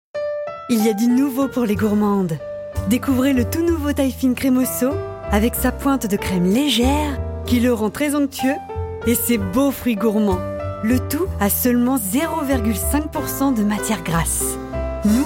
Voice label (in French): Voix Off PUB; Taillefine